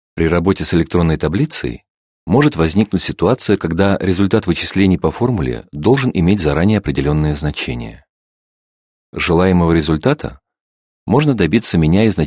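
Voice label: Russian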